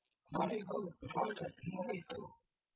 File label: calm kan Anger generated; GAN for speech spectrogram